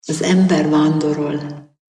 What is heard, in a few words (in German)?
ungarisch